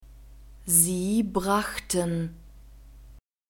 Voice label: "sie brachten", German; verbs past tense irregular